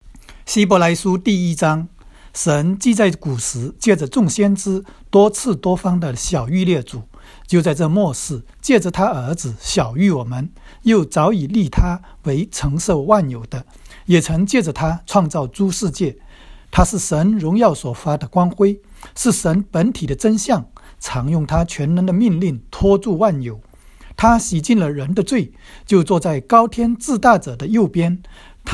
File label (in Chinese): 来01 经文 国语; NT 新約; Mandarin